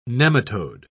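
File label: "nematode", British English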